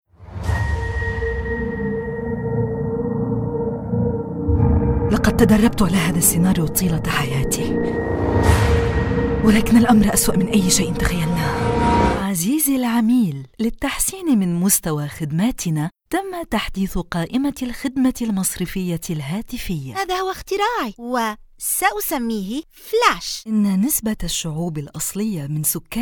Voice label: arabic, arabic female voices

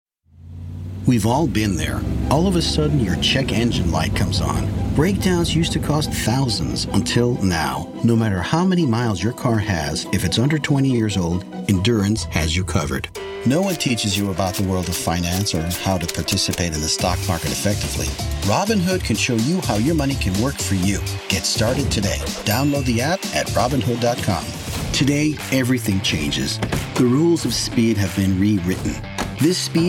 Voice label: ingilizce, english male voices american accent

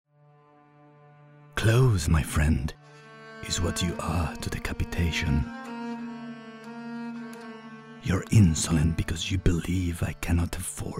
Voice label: ingilizce, english male voices spanish accent

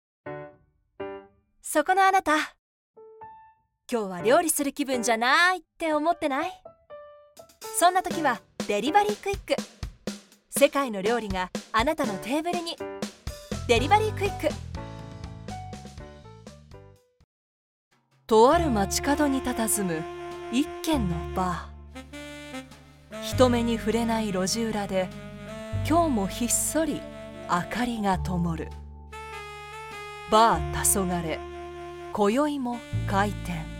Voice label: commercial, japanese female voices

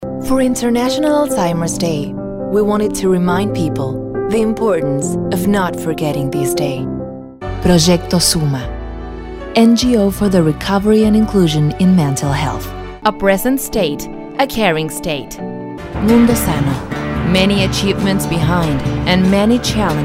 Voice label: ingilizce, english female voices american accent

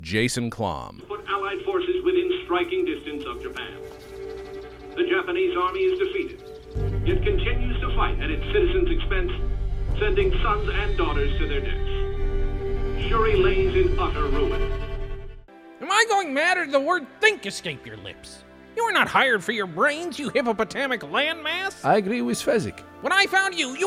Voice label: oyun, game dubbing male voices american accent

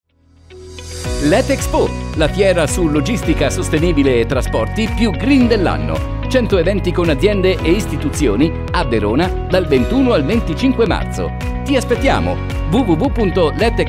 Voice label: italian male voices

